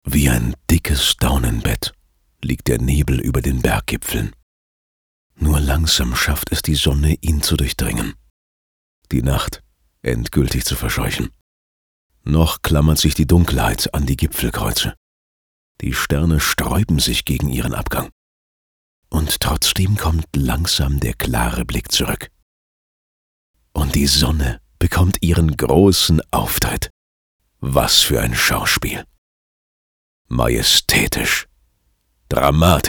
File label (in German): Geheimnisvoll; Daunenbett